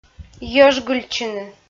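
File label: "йӧжгыльтчыны", Komi, female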